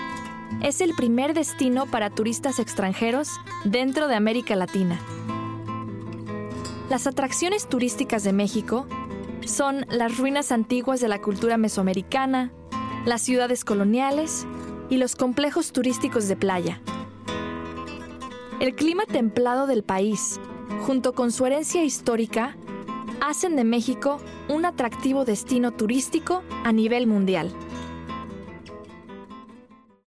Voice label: Spanish